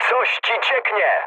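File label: tank damaged mood med, speech Polish; War Thunder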